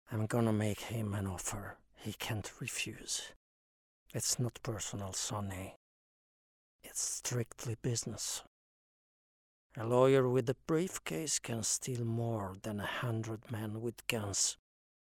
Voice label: english documentaries